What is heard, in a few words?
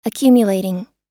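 "accumulating", US English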